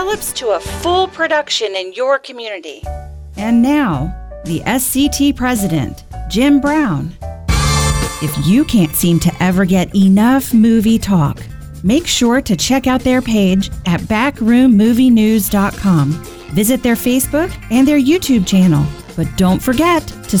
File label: Podcast